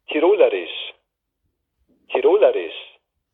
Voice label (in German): Tirolerisch; tannheimertal